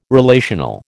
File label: "relational", American